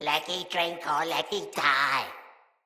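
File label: See Player Corrupted Sprouts, en; Battle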